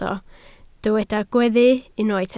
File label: "gweddu", Welsh